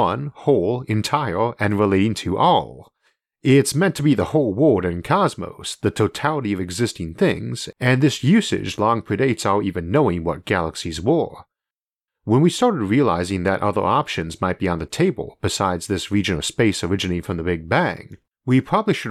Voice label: parallel universe alternate realities narration only; isaac arthur